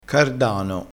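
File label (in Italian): "cardano", dialettale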